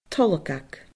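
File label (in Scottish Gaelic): "tolgag", Scottish Gaelic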